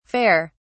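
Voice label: "fer", English, British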